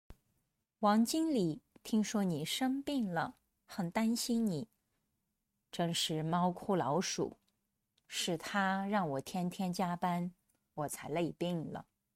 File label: denoised